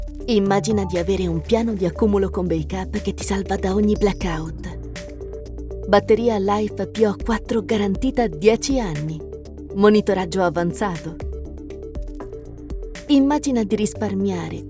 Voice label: italian e learning